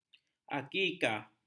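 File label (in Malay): "akikah", Malay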